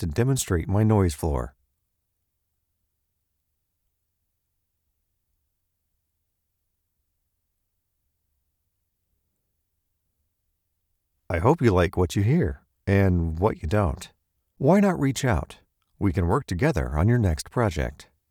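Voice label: voiceover studio, Male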